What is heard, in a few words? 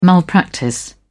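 "malpractice", English, uk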